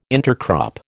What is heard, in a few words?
"intercrop", American